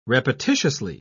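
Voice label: "repetitiously", British English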